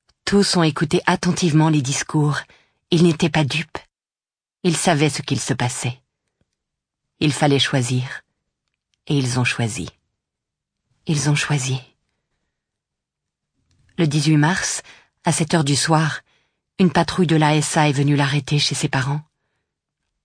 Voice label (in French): narration; robert capa